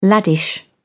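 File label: "laddish", British English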